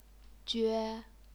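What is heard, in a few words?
"jue", Chinese, first tone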